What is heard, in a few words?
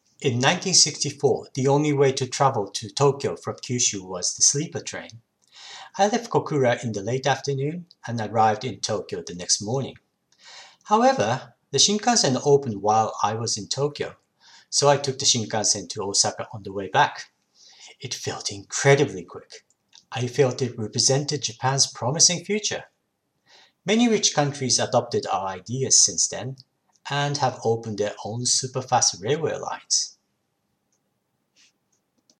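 Japanese, English accent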